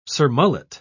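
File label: "surmullet", British English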